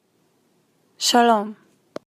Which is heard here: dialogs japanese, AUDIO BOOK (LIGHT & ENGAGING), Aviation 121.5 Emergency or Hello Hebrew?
Hello Hebrew